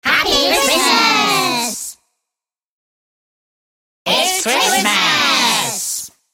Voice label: Cute elves saying ‘Happy Christmas’ and ‘It's Christmas’; Christmas (Sound Effects:Seasonal)